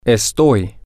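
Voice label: I am, Spanish, male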